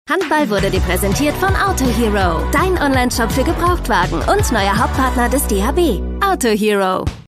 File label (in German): Werbung Handball; Autohero